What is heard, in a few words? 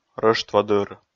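"рӧштводыр", Komi, male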